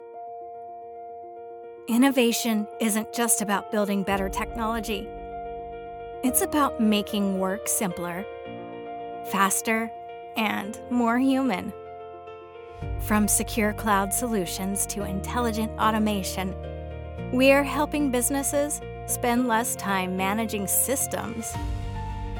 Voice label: Corporate Narration

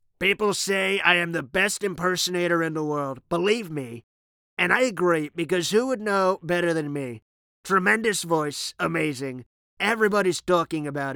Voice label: Impersonations

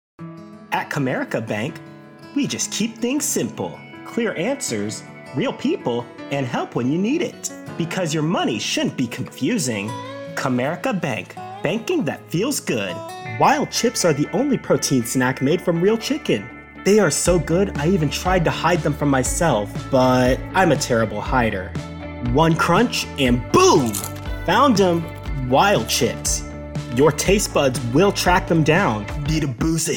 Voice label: Commercial VO

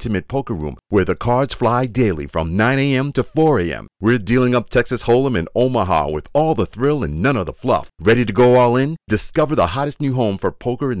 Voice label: Radio Spot for Casino

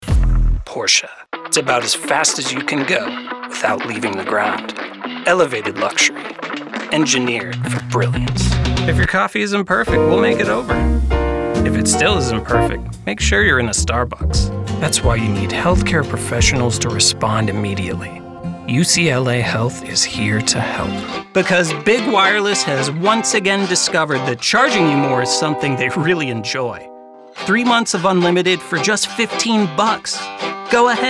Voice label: Commercial VO

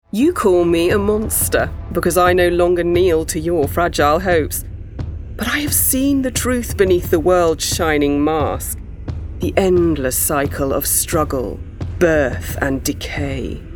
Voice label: Gaming goddess queen witch

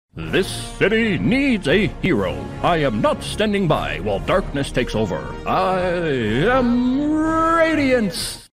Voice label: Character Superhero

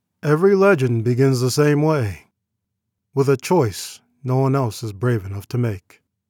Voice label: Narration Fantasy, Male

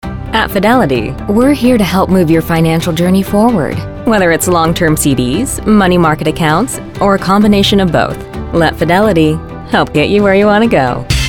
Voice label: Commercial

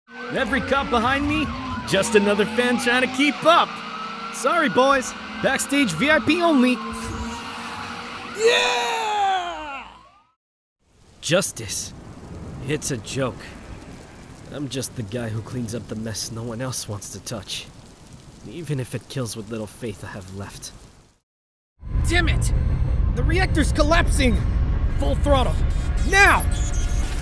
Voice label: Character

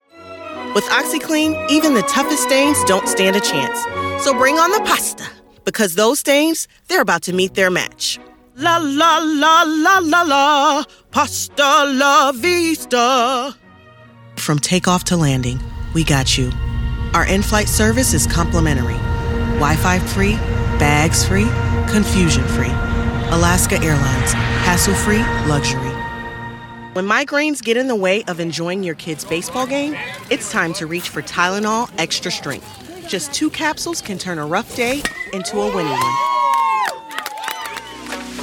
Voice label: Commerical

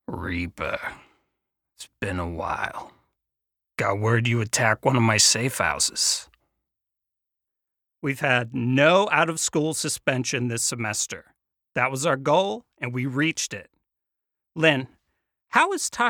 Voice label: Character VO

